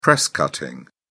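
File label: "press-cutting", British English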